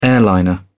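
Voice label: "airliner", British English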